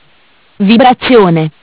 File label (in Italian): "Vibrazione", MESSAGGI VOCALI; Bentel; BLE320; Audio BGS220